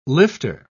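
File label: "lifter", British English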